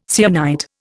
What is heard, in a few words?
"syenite", Indian